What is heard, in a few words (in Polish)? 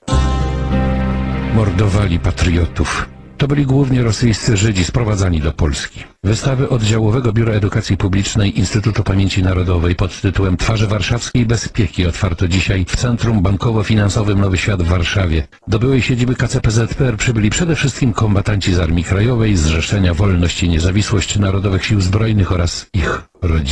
reklama